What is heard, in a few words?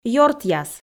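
"ёртъяс", Komi, female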